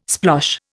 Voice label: "splosh", Indian